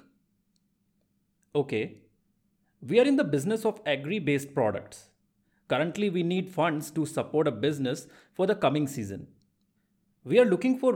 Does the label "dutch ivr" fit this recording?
No